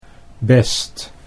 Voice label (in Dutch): "best" Dutch